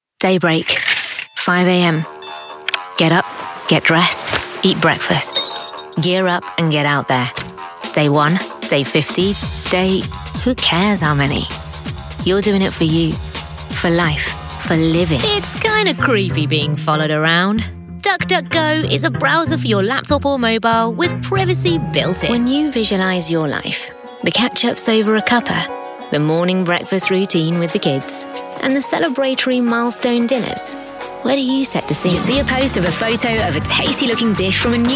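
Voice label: radio, British, Urban British and Indian accents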